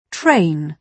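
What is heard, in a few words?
"train", British English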